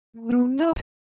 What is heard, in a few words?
Semai Texture; ideophones